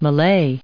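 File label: "malay" English, American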